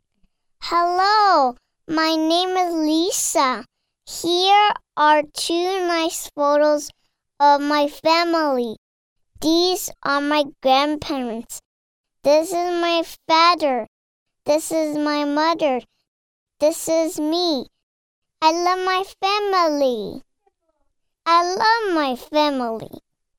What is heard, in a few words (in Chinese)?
外籍女英 外语 美式英语 五岁男童